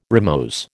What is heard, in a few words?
"rimose", American